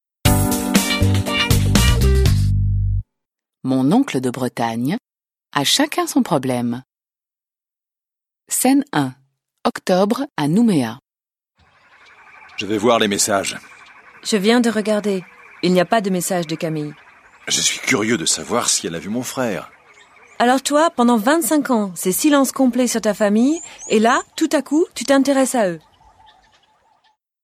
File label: French